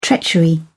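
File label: "treachery", British English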